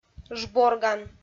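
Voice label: "жборган", Komi, female; audio dict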